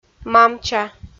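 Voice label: "мамча", Komi, female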